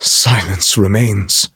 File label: defeat, en; Cookie Run